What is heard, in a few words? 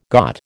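"got", American English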